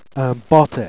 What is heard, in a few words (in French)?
"bate", Jèrriais